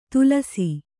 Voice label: "ತುಲಸಿ", Kannada

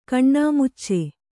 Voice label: "ಕಣ್ಣಾಮುಚ್ಚಿ", Kannada

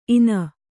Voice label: "ಇನ", Kannada

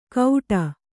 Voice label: "ಗೌಡ", Kannada

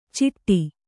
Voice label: "ಚಿಟ್ಟಿ", kannada

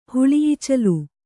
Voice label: "ಹುಳಿಈಚಲು", Kannada

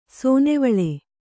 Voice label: "ಸೇನೆವಳ್ಳ", kannada

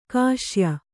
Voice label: "ಕಸಾಯಿ", Kannada

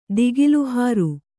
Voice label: "ದಿಗಿಲುಹಾರು", kannada

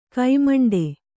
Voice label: "ಘಮೆಂಡಿ", Kannada

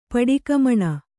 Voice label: "ಪಡಿಕಮಣ", Kannada

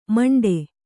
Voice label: "ಮಿಂಡಿ", Kannada

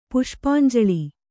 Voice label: "ಪುಷ್ಪಾಂಜುಳಿ", Kannada

